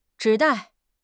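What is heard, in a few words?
"zhi3 dai4", Chinese; HSK4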